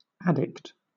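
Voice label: "addict" noun, British English; pronunciations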